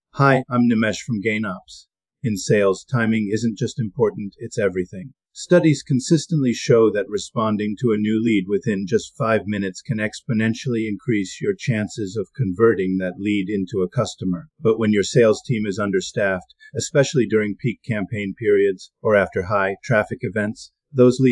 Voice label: narrative script